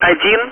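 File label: Speech, Russian, air traffic control; Digits; DCS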